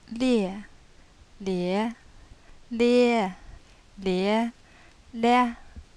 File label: tones, yancheng